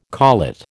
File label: "collet", American